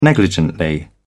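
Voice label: "negligently", British English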